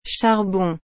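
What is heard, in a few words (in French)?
"charbon", French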